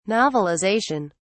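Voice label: "novelization", British English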